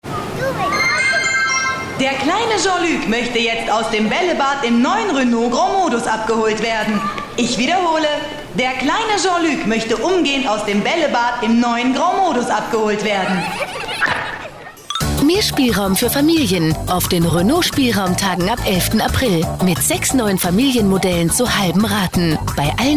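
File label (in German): Sprecherin, female speaker, German; WERBUNG; RENAULT: Bällebad Modus GT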